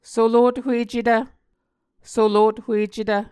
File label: "solothuecetv", Muscogee